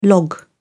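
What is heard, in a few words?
"log-in", British English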